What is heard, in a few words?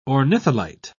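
"ornitholite", British English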